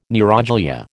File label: "neuroglia", American